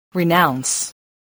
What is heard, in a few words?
"renounce", English